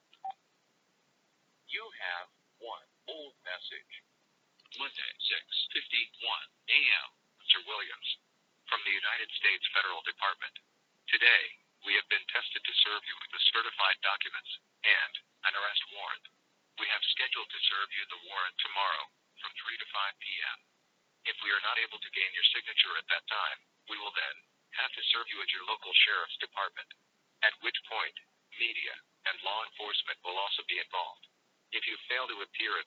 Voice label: spam telephone call